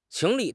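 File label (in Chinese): "情理", Chinese; HSK; HSK6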